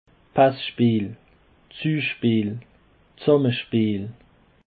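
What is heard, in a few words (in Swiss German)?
"passpeel", Bas-Rhin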